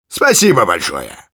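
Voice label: Engineer thanks, Russian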